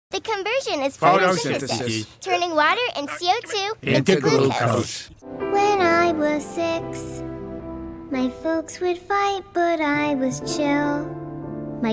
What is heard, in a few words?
VO Animation